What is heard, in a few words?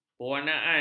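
"pewarnaan", Malay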